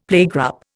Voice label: "playgroup", Indian; Dictionary